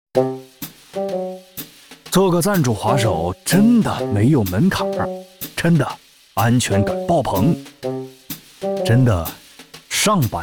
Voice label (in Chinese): 男 戏剧